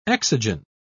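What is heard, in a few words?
"exogen", British English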